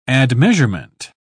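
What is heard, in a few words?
"admeasurement", British English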